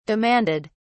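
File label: "demanded", British English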